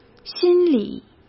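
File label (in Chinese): "心理", Mandarin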